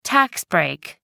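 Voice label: "tax break", British English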